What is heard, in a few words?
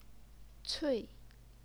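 "cui", fourth tone, Chinese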